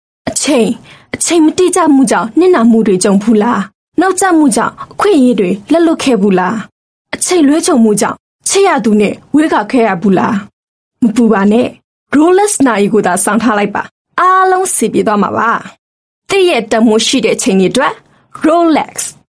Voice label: Burmese F TVC